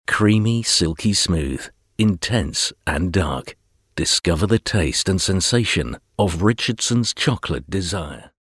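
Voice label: British English, male, commercial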